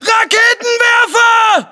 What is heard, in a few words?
US threat rpg, battlechatter; cod modern warfare 2